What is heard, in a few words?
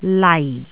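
"lai4", Cantonese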